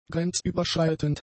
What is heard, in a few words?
"grenzüberschreitend", German; adjectives